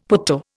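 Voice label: "putto", Indian English; Dictionary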